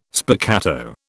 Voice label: "spiccato", British

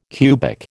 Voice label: "cubic", American

